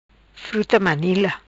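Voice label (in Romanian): "Fruta Manila", Macanese Patuá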